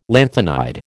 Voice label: "lanthanide", American